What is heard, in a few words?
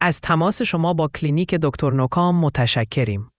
question persian